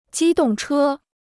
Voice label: "机动车", Chinese